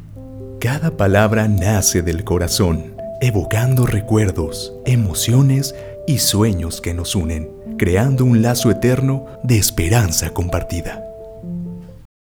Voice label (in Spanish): Voz Emotiva Musicalizado